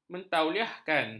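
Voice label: "mentauliahkan", Malay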